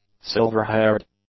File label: "silver-haired", English; adjectives